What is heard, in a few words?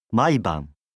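"maiban", Japanese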